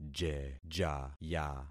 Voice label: "jejaya", concat syllables; Affixing experiment